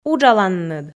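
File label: "уджаланныд", Komi, female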